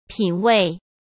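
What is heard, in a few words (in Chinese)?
"品位", Mandarin Chinese; hsk; chinese flashcards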